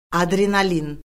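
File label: "adrenalin", Azerbaijani; Orfoqrafiya Lüğəti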